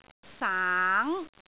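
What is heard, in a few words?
"saang2", Cantonese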